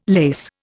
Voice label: "lase", Indian